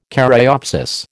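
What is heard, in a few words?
"caryopsis", American English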